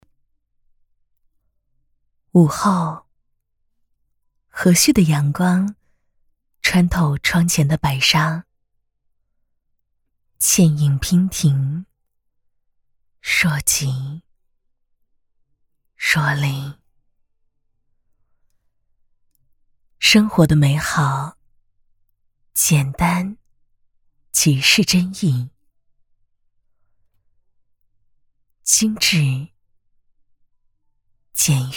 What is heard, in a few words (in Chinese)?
女 旁白 温暖自然 午后